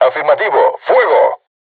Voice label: voice message art shoot, crew tanks spanish